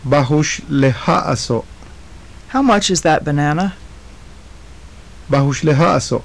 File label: phrases, maya